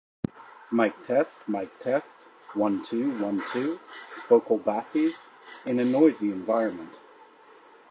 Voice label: Focal Bathys Noisy